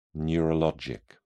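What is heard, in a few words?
"neurologic", British English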